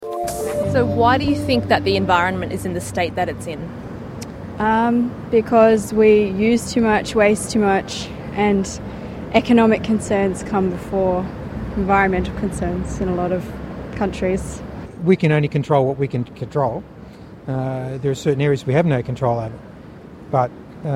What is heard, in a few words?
Vox Pops; World Environment Day